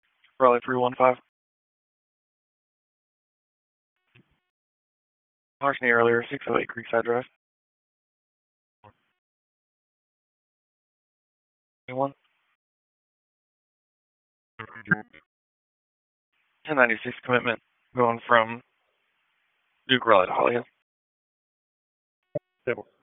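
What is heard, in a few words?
Police Northeast District Disp, radio; Raleigh; wake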